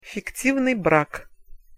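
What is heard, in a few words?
"fiktivnyj brak", Russian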